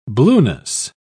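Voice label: "blueness", British English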